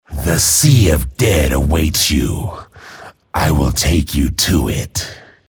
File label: chatter neutral